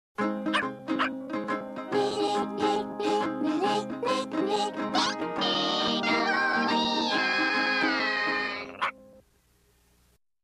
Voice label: Commercial; Nickelodeon Time Capsule; clarissa